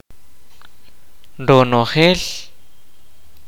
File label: kaqchikel